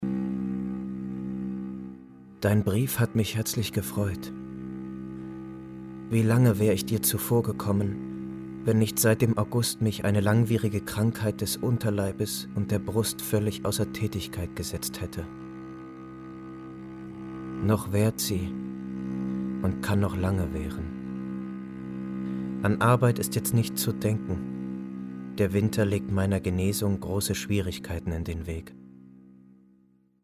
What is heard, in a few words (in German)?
Hoerbuch; Novalis; Tod